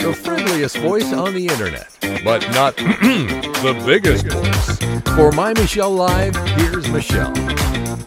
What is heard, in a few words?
friendliest voice